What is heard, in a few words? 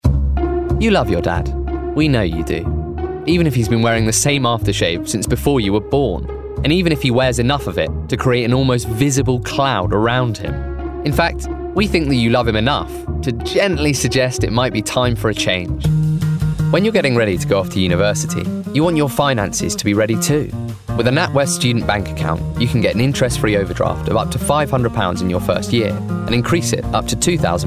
Commercial Voicereel